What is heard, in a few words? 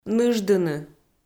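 "ныждыны", Komi, female; kpv; audio dict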